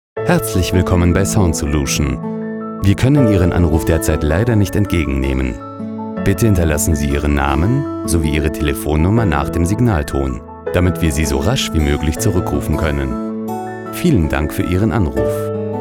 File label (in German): Anrufbeantworter deutsch